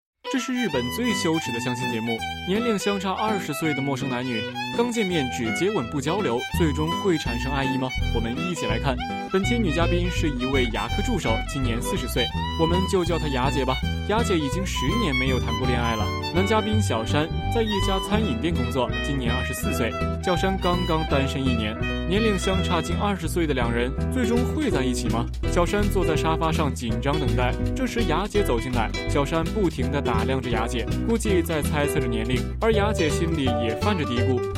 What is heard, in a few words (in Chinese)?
轻快娱乐